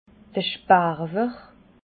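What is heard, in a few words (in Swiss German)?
"sparwer", Alsatian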